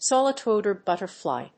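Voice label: "swallow-tailed butterfly", English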